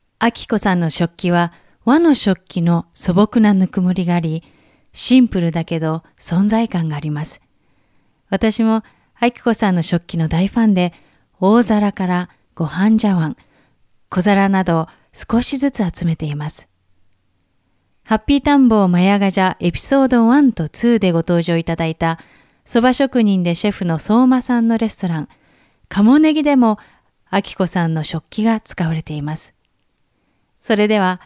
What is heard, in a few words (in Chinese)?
日语女 干音 陈述